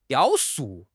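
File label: "雕塑", Chinese; HSK6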